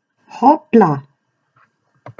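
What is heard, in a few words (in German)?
"hoppla", German interjection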